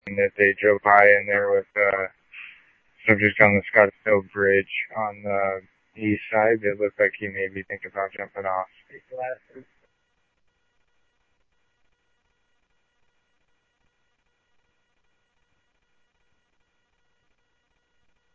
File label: Tempe Police, scanner; SimulcastF-Tempe; TEMPE PD A02